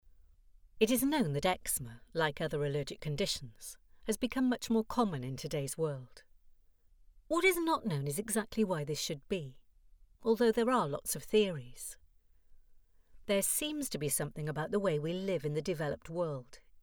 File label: english british documentaries